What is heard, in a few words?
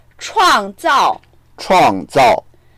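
"chuang4zao4", Mandarin Chinese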